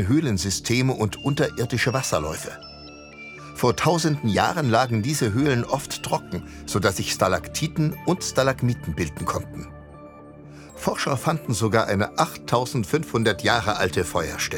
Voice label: Doku Yucatan

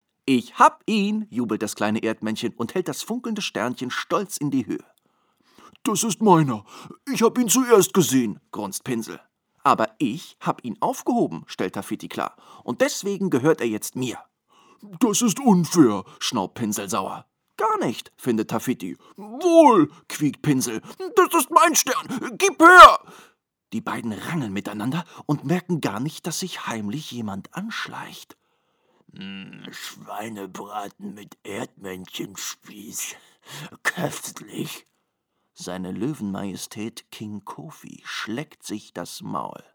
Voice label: Kinder Hörbuch

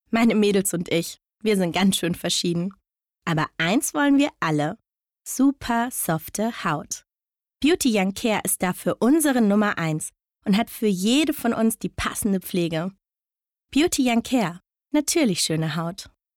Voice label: Werbung Beauty

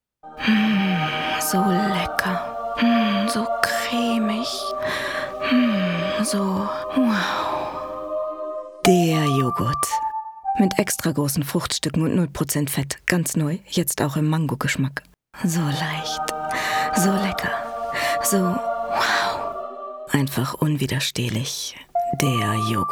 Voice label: Werbung full FX

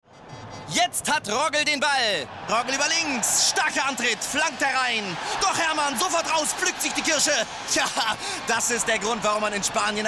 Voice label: fussballkommentator

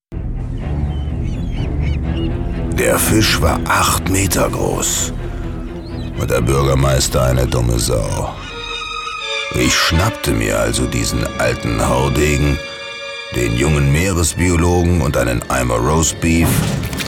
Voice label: Kinowerbung; Der weisse Hai

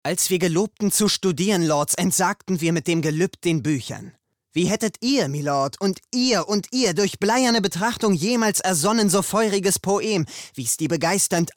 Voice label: synchron

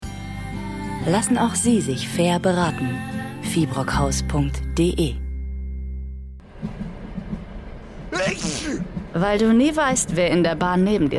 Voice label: Werbeabbinder Zusammenschnitt; Hausbau; Fahrrad; Verlag

